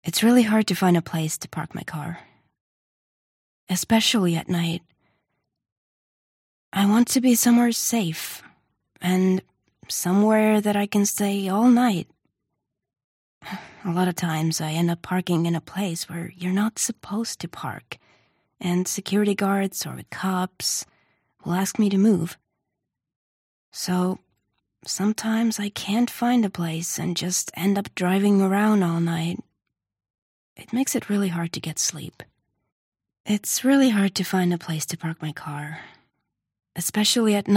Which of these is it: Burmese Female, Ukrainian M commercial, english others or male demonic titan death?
english others